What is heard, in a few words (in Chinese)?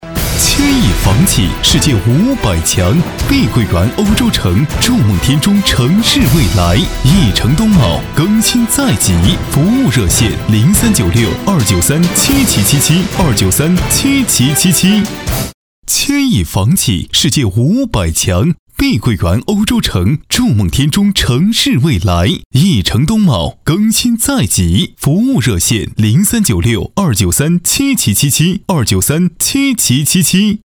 男, 广告